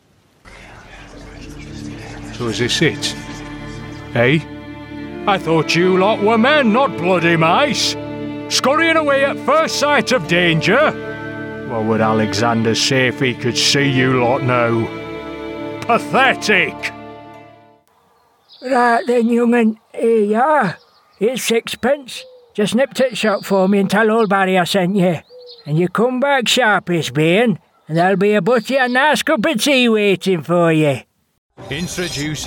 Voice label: Northern

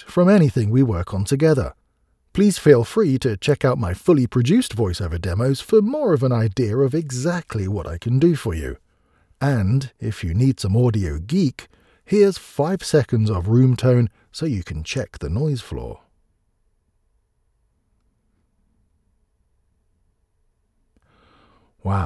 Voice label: dry studio sample; mono

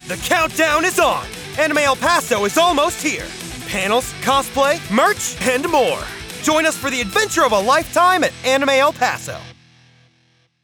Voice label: anime; El Paso

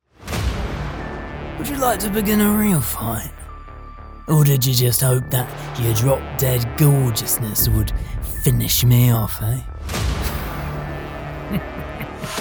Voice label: Character Samples

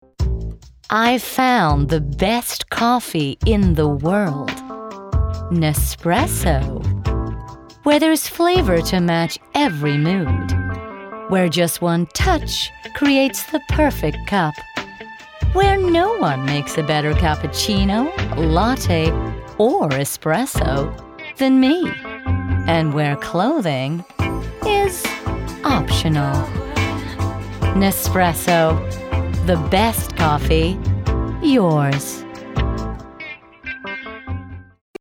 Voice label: US Voice; Nespresso

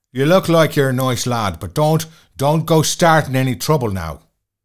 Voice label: Irish

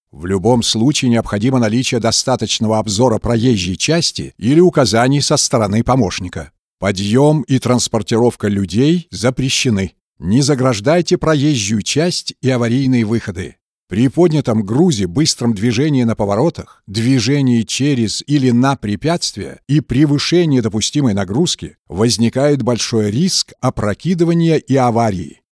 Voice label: Forklift Safety Instructions (e-learning)

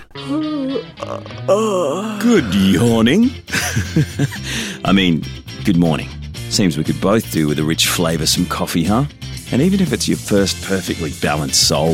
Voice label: Voice Actor; BigMouthVoices

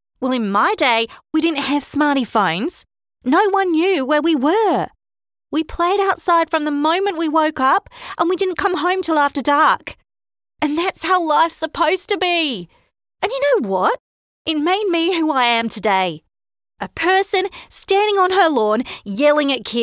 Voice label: Australian accent

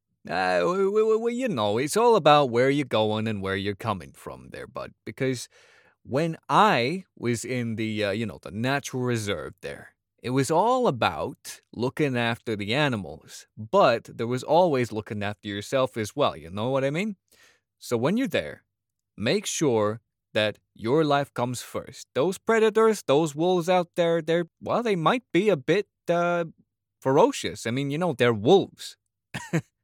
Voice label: Midwestern US

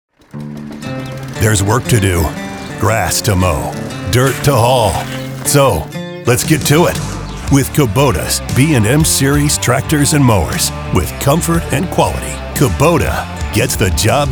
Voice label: COMMERCIAL, en-AU

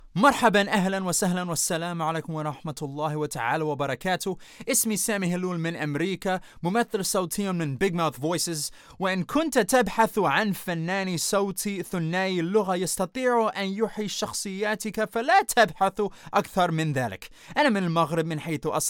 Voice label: Arabic Sample Spot

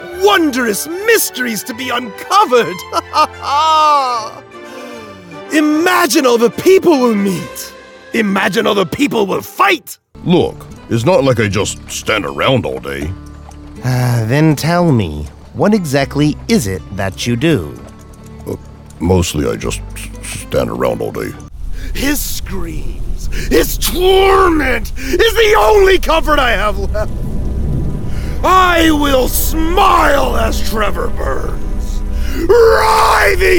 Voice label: Animation

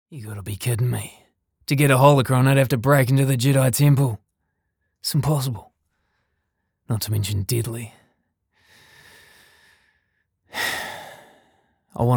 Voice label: Gruff sinistar villain AUS Character

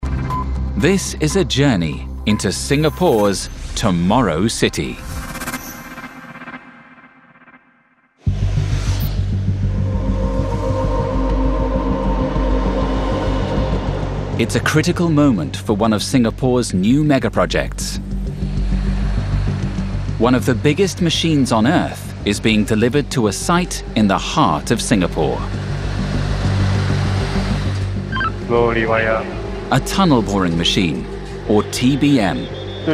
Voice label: Dramatic doco

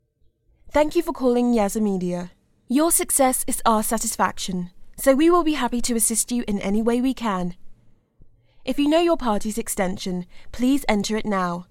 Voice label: IVR, en-AU

